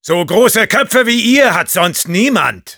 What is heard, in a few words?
taunts, de; Sniper